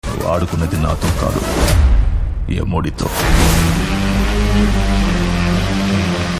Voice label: Dialogue Telugu Ringtone; Mr. KK; Chiyaan Vikram